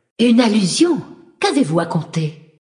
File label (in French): Female Flirt, voix francaises; Sacrenuit; Allusion; warcraft; races alliees